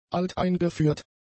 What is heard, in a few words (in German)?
"alteingeführt", German; adjectives